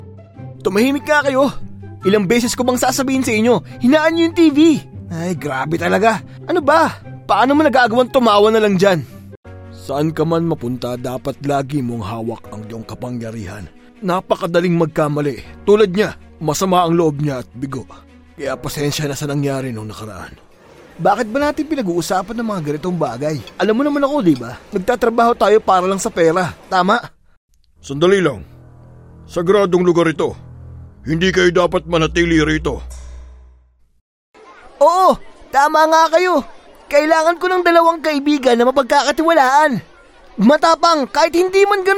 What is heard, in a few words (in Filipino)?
Tagalog Acting